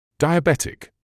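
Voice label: "diabetic", British English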